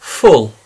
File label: "full", English